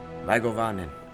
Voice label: Elvish Welcome